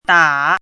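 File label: "dǎ", Chinese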